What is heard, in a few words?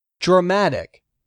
"DRAMATIC", English; 3000 words